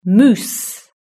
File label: "moose", British English